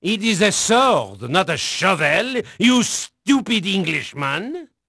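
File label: French male, voice; Pirates Of The Caribbean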